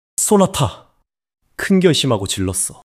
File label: excitement